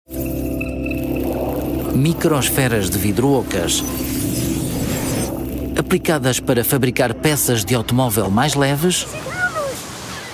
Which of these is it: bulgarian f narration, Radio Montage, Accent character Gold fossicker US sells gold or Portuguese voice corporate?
Portuguese voice corporate